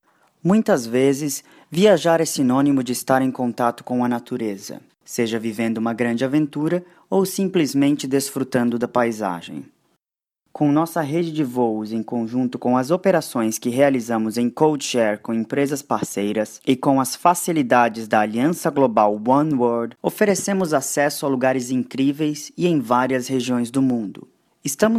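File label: Brazilian Portuguese, Corporate; GoLocalise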